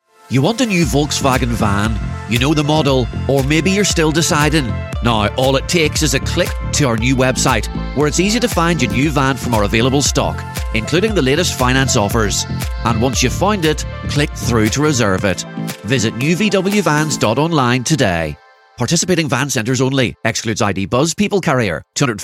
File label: 60 commercial